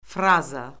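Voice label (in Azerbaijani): "fraza", Azerbaijani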